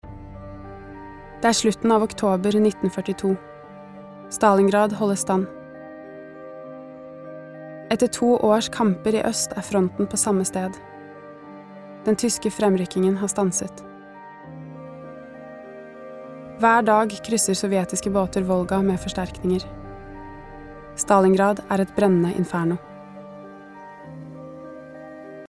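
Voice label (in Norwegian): dokumentar, Norwegian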